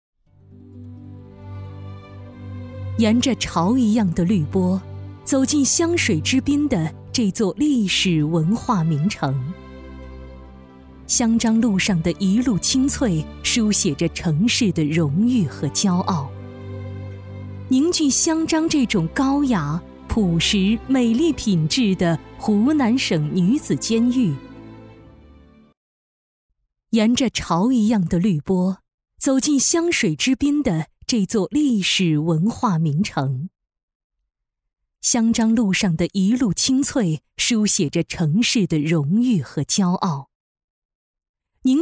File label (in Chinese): 女 专题 大气 成熟; 赤诚